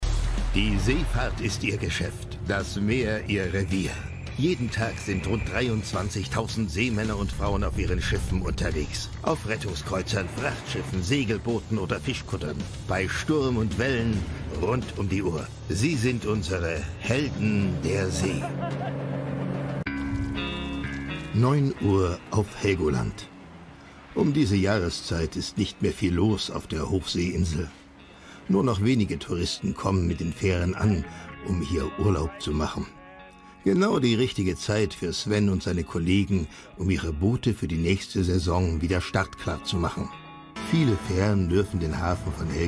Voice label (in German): Doku; Helden der See